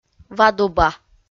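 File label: "вадуба", Komi, female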